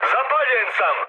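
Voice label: Serbian on fire mood med, speech; War Thunder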